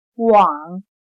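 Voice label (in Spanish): "往", chino mandarin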